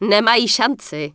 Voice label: Night Elf Female Priestess NPC Farewell; wowpreklad; czwow